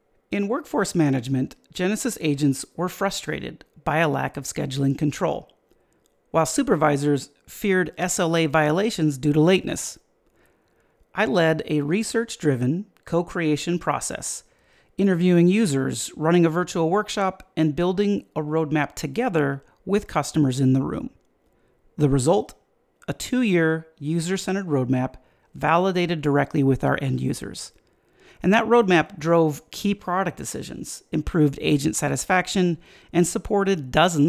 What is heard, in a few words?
voicetrack